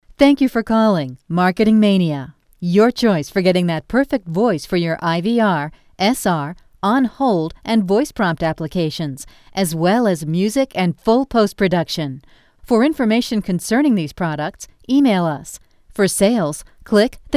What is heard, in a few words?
Voice Sample, onhold